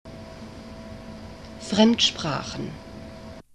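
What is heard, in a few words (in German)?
"fremdsprachen", German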